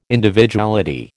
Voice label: "Individuality", American